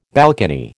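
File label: "balcony", American English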